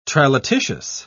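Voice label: "tralatitious", English, British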